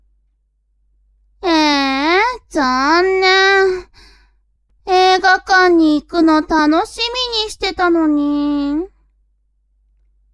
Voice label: woman